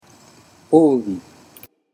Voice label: "ougi", Japanese